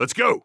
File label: radio lets go; vo; Counter Strike Model Pack; CS:GO; phoenix coop